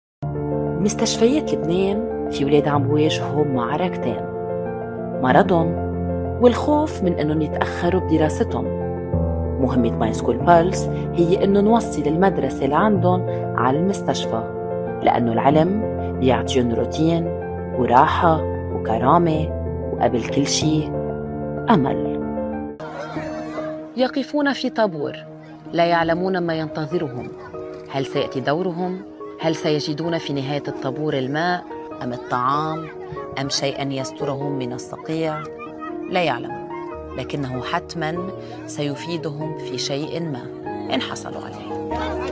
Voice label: Arabic, Arabic (Lebanon), Middle Eastern, Female, 20s-30s